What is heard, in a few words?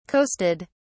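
"coasted", British English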